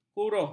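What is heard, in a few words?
"kuruh", Malay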